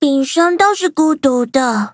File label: loading, zh-hant; cookierunwiki